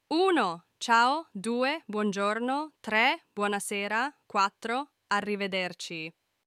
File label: italian greetings and goodbyes